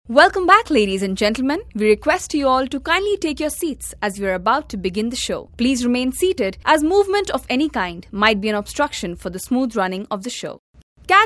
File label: ENGLISH VO, female voice